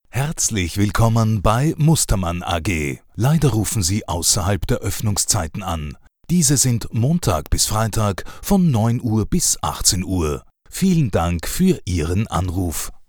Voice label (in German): Telefonansage